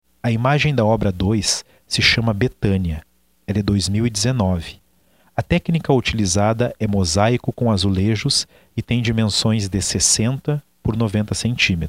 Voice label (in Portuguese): audiodescrição; galeria virtual